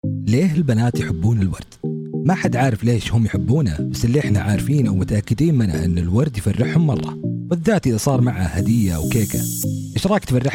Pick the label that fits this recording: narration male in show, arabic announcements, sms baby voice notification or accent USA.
arabic announcements